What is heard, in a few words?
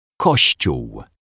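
"kosciol", Polish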